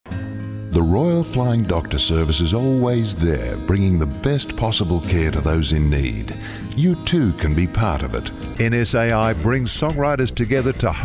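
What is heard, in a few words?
English Australian, male, commercial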